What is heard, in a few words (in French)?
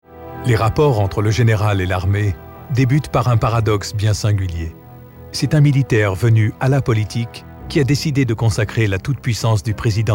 A vos ordres Mr Le President Voix Off Voice Over